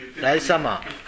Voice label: "raisama", minamiyamagata

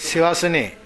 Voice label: "sewasune", minamiyamagata

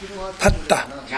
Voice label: "patta", minamiyamagata

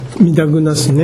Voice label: "midagunasu", minamiyamagata

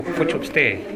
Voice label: "kochobitae", minamiyamagata

